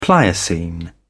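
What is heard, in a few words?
"pliocene", British English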